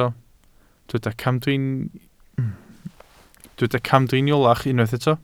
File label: "camdriniolach", Welsh